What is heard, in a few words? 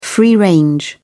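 "free-range", British English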